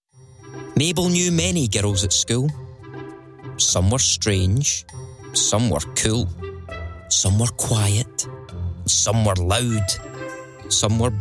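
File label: Children's Audiobook Sample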